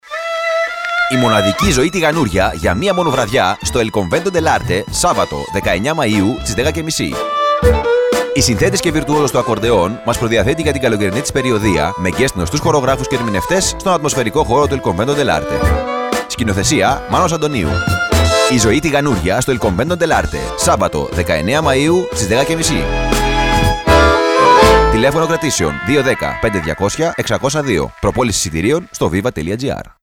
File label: RADIOSPOT; EL CONVENTO DEL ARTE